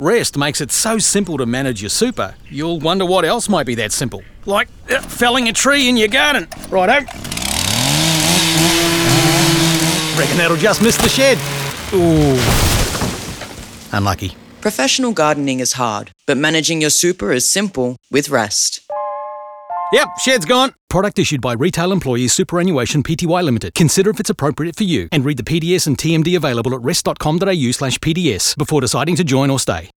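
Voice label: funny advertisement; SUPER TREE; BROADCAST RADIO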